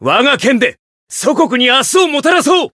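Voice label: Voice variable chant, Japanese; claude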